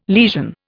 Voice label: "lesion", Indian